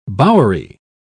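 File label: "bowery", English, British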